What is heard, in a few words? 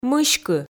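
"мыськы", Komi, female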